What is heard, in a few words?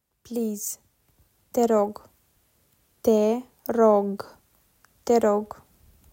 "Please", Romanian